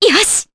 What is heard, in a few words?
Happy, Jp; Scarlet; kings raid